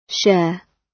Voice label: "share", gb